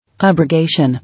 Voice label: "Abrogation", English

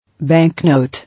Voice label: "Banknote", English

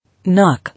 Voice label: "Knock", English

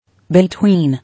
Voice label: "Between", English

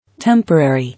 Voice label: "Temporary", English

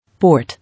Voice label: "Port", English

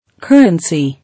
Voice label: "Currency", English

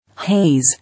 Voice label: "Haze", English

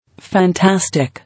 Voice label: "Fantastic", English

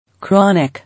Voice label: "Chronic", English

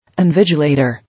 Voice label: "Invigilator", English

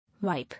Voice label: "Wipe", English

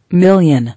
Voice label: "Million", English

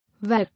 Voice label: "Wag", English

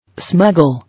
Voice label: "Smuggle", English

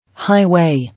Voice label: "High way", English

